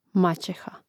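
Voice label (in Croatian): "maceha", Croatian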